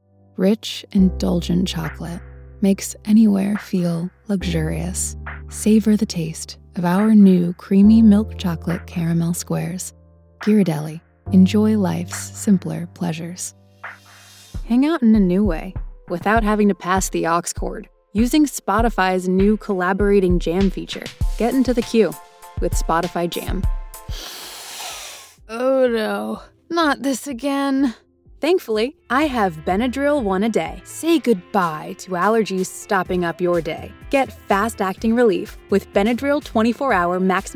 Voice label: Commercial Mixed